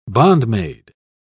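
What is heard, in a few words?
"bondmaid", British English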